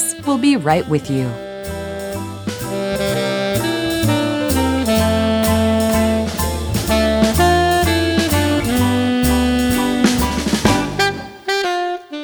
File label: Holiday, on hold